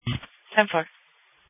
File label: Police Dispatch